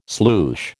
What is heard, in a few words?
"sloosh", American